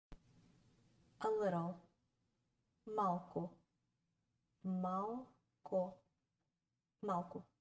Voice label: A little, bulgarian; the talking bulgarian phrasebook

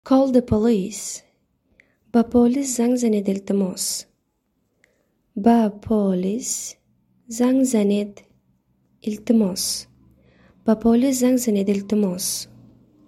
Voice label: Call the police, Tajik

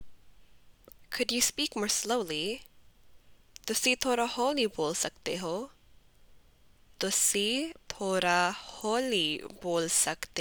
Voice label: Punjabi Could you speak more slowly

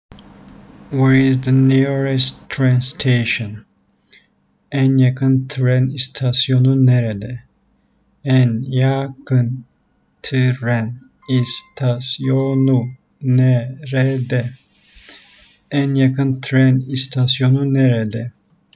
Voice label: where is the nearest train station, turkish; the talking turkish phrasebook

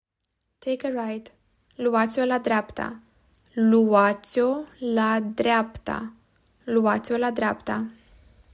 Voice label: Take a right, romanian; talking romanian phrasebook

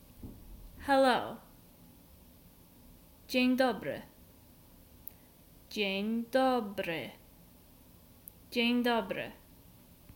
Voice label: Hello, polish; the talking polish phrasebook

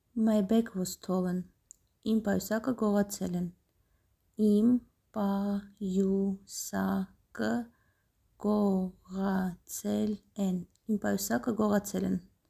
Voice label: My bag was stolen, armenian; Im payusaky goghatsel en

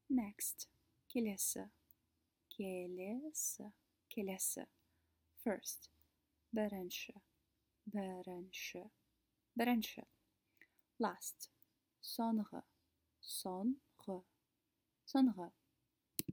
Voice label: Next First Last, kazakh

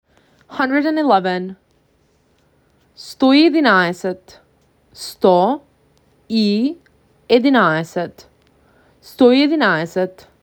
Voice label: MAC 6, macedonian phrasebook

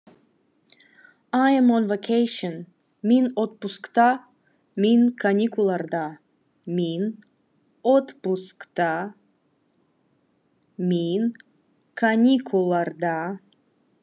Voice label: Khakas I am on vacation

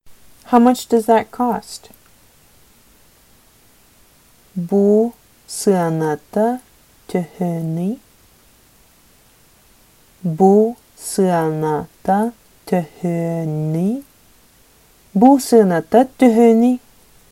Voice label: Sakha How much does that cost

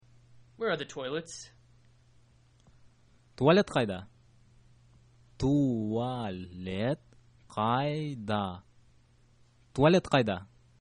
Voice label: where are the toilets, kyrgyz

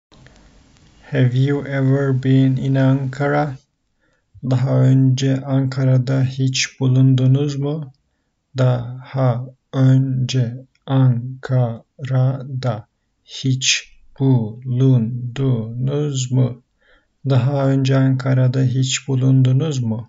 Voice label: have you ever been to ankara, turkish; the talking turkish phrasebook

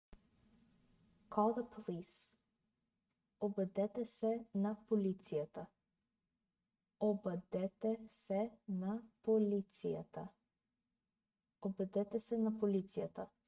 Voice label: Call the police, bulgarian

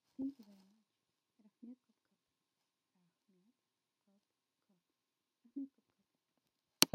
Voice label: Kazakh Thank you very much

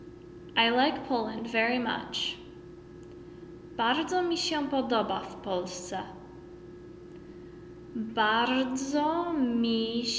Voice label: I like Poland very much, Polish

